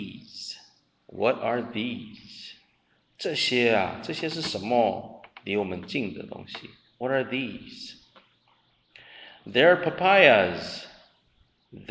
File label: conversation